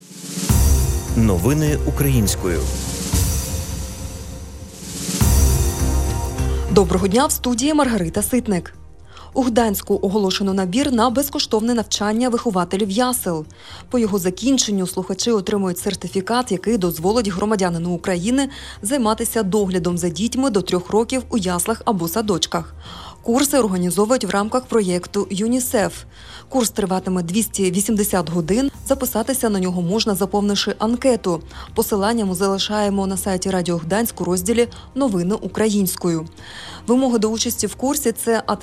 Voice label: Ukrainian Evening News